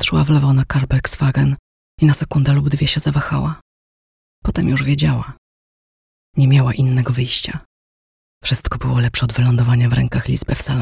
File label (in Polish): niski aksamitny głos; 30-50 lat, aktorka o niskiej, aksamitnej barwie głosu; Anna Samusionek; Znani i lubiani